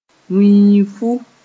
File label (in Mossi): "wĩifu", Moore